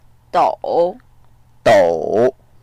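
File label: "dou3", Mandarin Chinese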